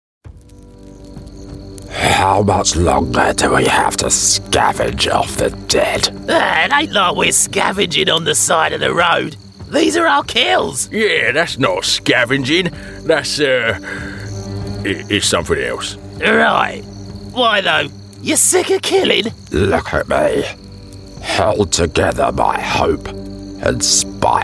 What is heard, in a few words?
character, Kent Accented